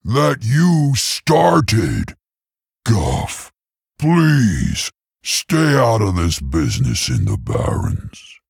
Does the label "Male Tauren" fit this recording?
Yes